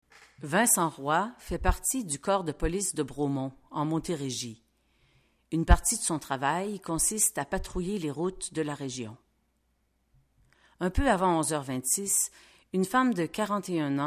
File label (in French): narration